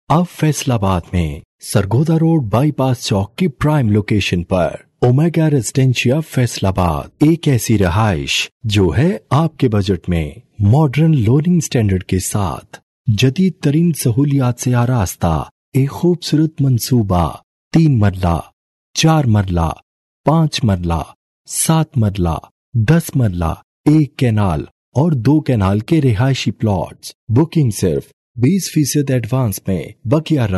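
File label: Urdu, Pakistan, male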